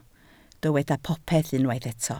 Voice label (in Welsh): "popeth" Welsh